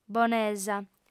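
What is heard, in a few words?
"bonesa", female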